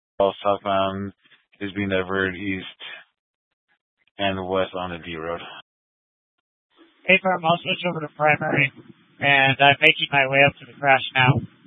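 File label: Incident Tac 3, Scanner audio recording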